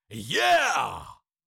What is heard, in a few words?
Cartoon lion voice, "yeah"